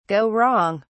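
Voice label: "go wrong", British English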